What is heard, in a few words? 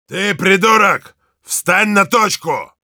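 stand on the point, Russian; Heavy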